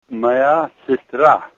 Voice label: my sister, russian